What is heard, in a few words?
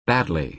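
"badly", American English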